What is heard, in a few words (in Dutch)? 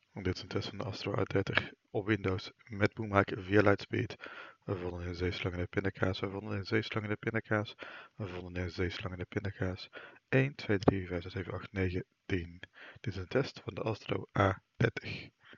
Windows met boommic